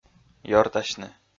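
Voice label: "ёртасьны", Komi, male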